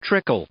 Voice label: "trickle", English